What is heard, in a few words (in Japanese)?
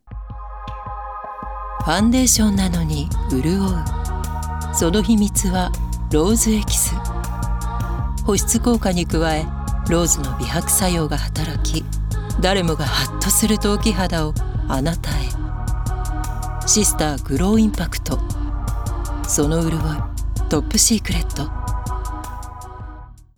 美容系CM ファンデーション